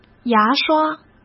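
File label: "牙刷", Mandarin Chinese; hsk; chinese-flashcards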